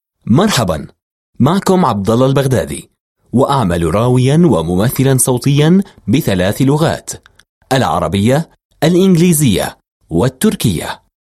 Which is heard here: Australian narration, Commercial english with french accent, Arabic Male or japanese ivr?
Arabic Male